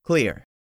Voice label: "CLEAR", English; 3000 words